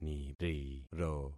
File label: "niriro", concat syllables; Affixing experiment